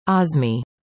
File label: "azmi", english; indonesian boy names